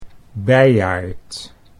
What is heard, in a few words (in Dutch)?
"beiaard", Dutch